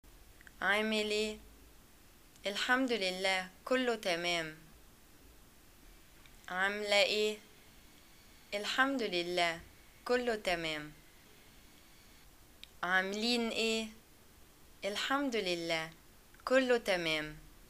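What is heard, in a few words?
Egyptian greeting how are you, arabic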